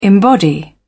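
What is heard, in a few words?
"embody", British English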